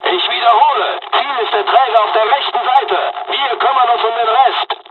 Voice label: dialog, dialogs german; War Thunder; midway

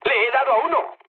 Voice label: player killed enemy, mood low, speech

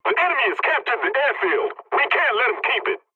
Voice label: pilot capture ground enemy, english; War Thunder

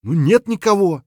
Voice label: clear, voice; Escape from Tarkov; scav6

